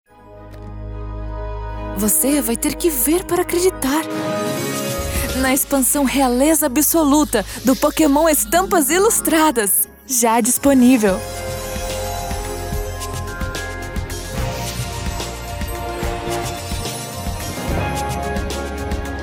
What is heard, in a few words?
Comm ad Magical Young Awe, female brazilian portuguese; Pokemon; RealezaAbsoluta